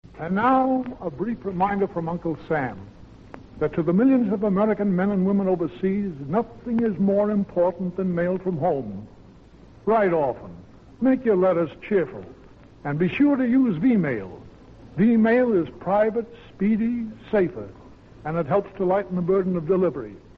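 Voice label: public service announcement use V mail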